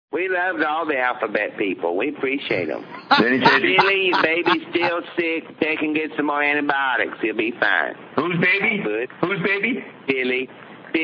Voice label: rant; “We LOVE all the alphabet people!”